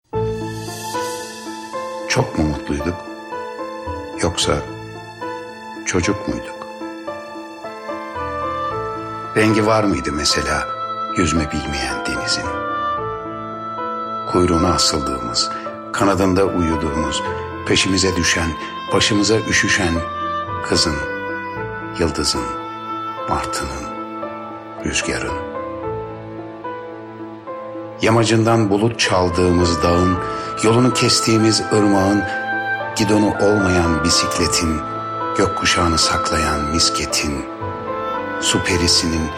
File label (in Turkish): REKLAM